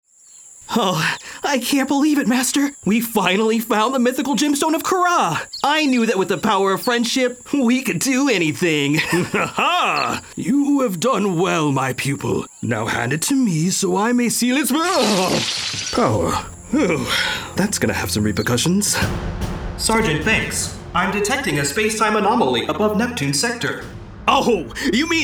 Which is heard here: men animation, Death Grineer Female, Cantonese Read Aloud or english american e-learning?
men animation